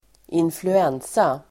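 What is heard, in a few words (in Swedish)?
"influensa", Swedish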